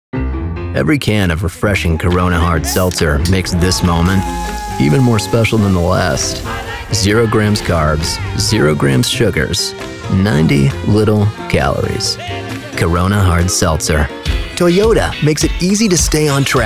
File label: Commercial